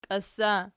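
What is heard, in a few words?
"kios" ergative, Archi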